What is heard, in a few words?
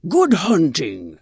Tuskarr Female Farewell; Magic User